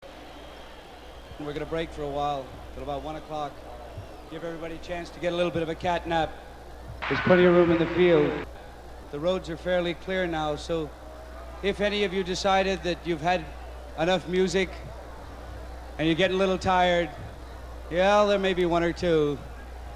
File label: Announcement Taking a Break; 1969 - Woodstock